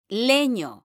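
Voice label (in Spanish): "leño", Spanish, female; mekanta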